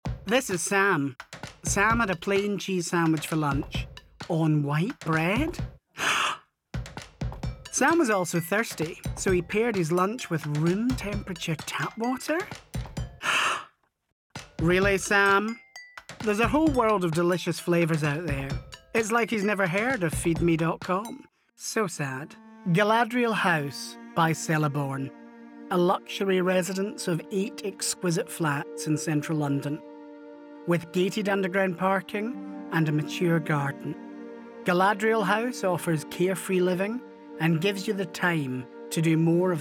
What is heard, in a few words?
Scottish voice over Commercial